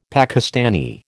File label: "Pakistani", American